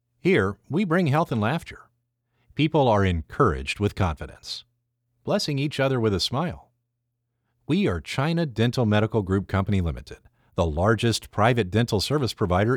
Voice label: 英文稿; 中国口腔医疗集团

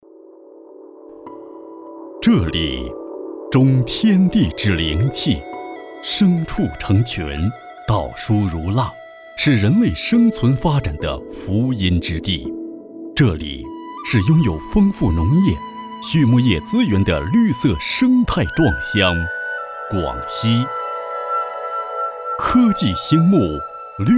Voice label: 男 宣传片 畜禽现代生态

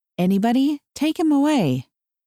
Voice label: 成熟女角色

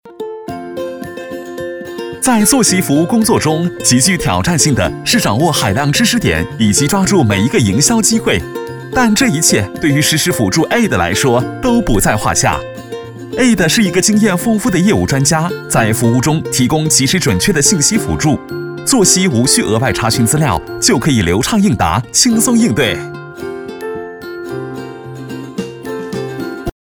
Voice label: 男 轻松 病毒 视频 感觉

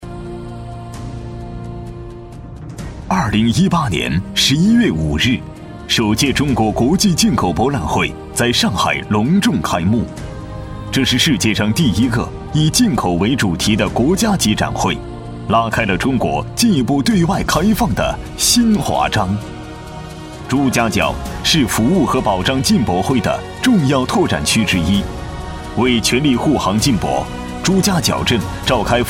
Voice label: 男 激昂高亢 汇报; 进口博览会汇报

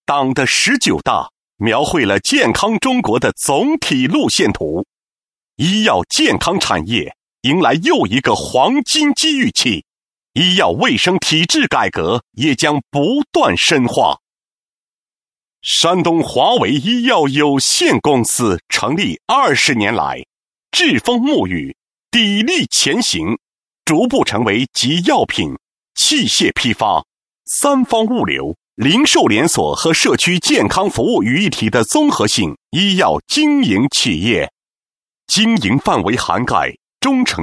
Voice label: 男 宣传片 医药

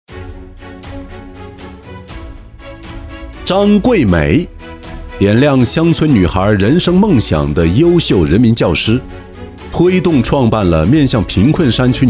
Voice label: 男 颁奖 激情 优秀教师

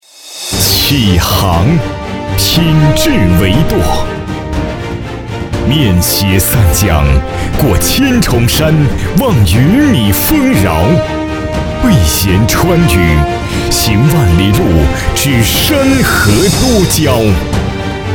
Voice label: 男 专题超大气

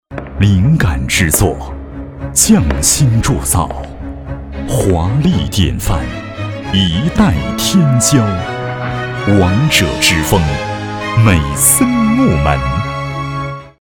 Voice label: 男 广告; 美森木门

